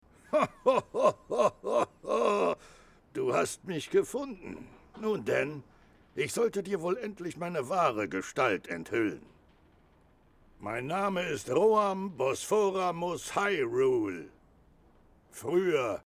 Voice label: voiceover example; Zelda - Breath of the Wild